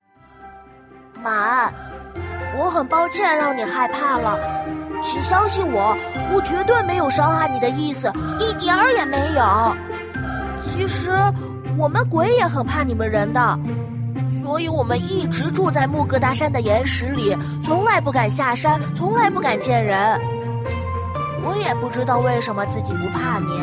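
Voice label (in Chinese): female, zhengtai luoli (boy and little girl voices)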